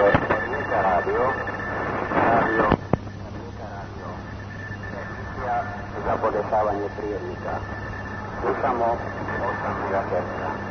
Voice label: rijeka radio; europe